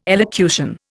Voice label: "elocution", Indian

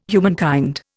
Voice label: "humankind", Indian

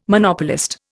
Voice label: "Monopolist", Indian

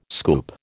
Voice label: "scoop", American

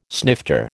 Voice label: "snifter", American

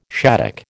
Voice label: "shaddock", American

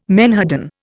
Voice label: "menhaden", Indian

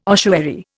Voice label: "ossuary", Indian

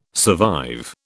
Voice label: "survive", British

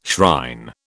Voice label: "shrine", British

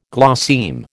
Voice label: "glosseme", American

